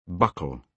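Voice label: "buckle", British English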